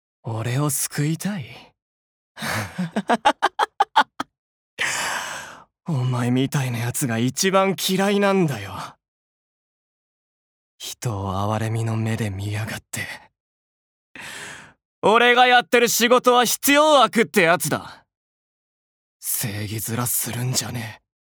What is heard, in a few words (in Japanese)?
アニメ